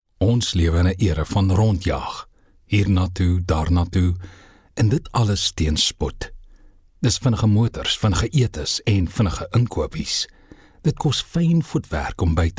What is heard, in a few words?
Afrikaans Soft Sell